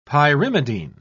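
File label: "pyrimidine", British English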